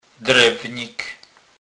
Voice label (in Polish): "drewnik", kurpiow